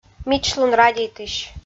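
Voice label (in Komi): "мичлун радейтысь", Komi, female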